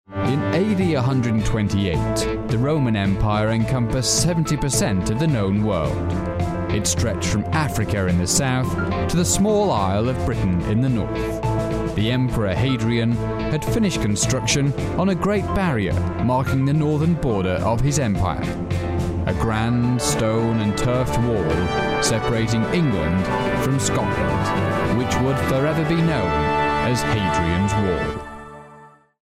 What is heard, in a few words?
voiceover comedy sketch introduction; hadrians wall